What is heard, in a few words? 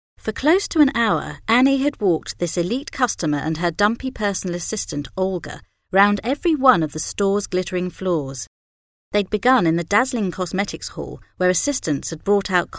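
drama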